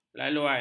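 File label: "laluan", Malay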